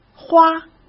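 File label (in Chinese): "花", Mandarin Chinese; hsk; chinese-flashcards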